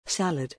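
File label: "salad", English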